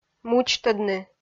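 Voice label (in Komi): "мудзтӧдны", Komi, female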